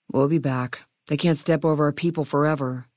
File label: female adult greeting; FNV